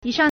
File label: "yi3 shang4", Chinese